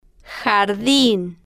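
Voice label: "jardin", spanish, slow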